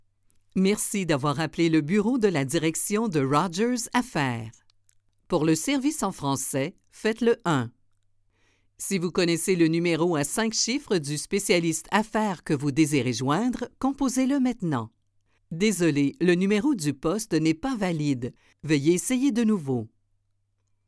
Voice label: french canadian ivr